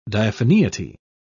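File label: "diaphaneity", British English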